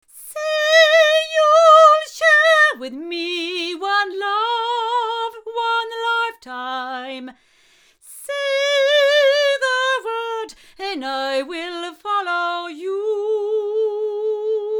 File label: Avant CV-12 tube 8 female